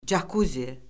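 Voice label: "cakuzi", Azerbaijani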